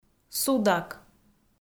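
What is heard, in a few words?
"судак", Komi, female; kpv; audio dict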